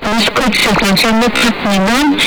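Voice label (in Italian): "finisco", italiano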